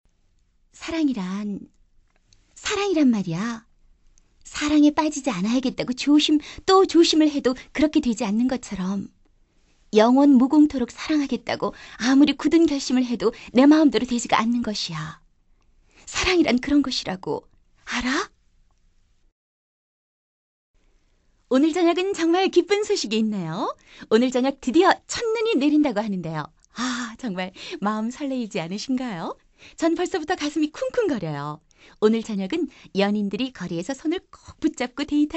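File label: Korean female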